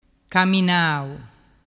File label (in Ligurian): "caminau", dialettu